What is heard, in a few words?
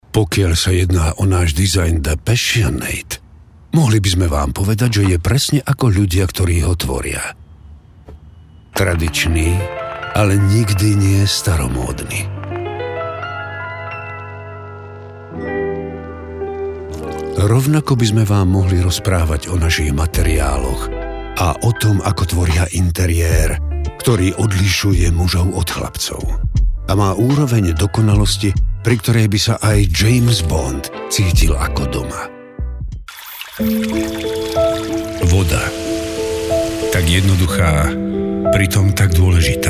deep calm warm; VoiceReel